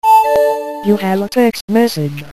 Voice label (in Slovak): sms hlásky en